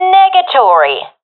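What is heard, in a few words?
negative, professional female; vo; Counter Strike Model Pack; CS:GO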